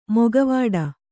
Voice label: "ಮೊಕವಾಡ", Kannada